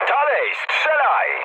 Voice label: attack position mood med, speech pol; War Thunder